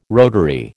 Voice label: "rotary", American